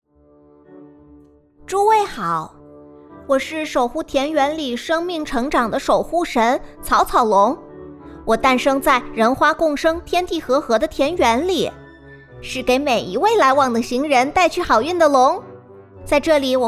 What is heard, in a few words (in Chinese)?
男女童